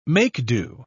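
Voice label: "make-do", British English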